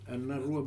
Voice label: "Anarua", futuna aniwa